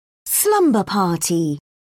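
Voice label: "slumber party", English, British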